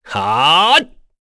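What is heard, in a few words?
Vox Casting, Korean; Kain; kingsraid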